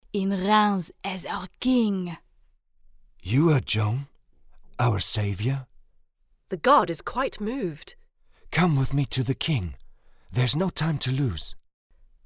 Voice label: audiodrama english; lucky and luna; king

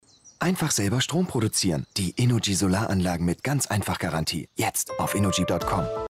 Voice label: advertisement tv sonne; innogy

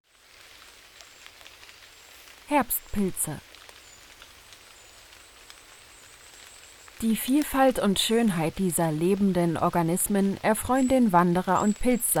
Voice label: DOCUMENTARY Pilze

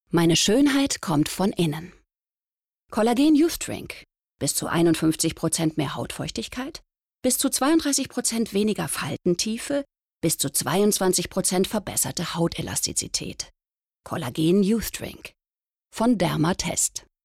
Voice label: Commercial Collagen Youth Drink; LOFT VOICES

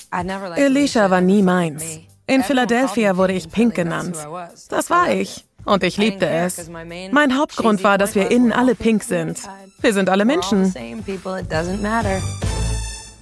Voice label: Voice Over

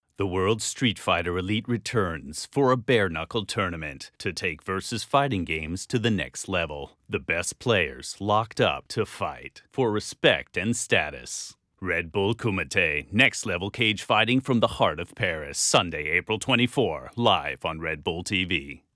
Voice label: tv announcer; redbulltv

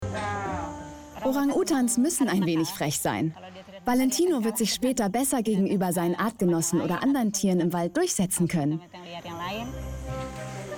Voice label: Voice Over; Oranutan Jungle School

